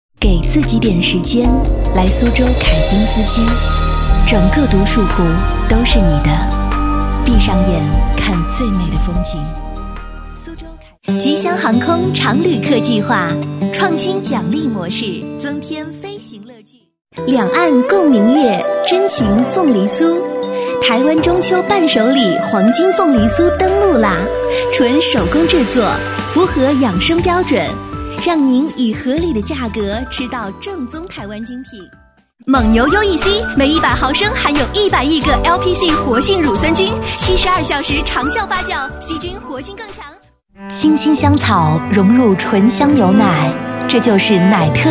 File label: voices CHINESE female adult MANDARIN; KER-SOUND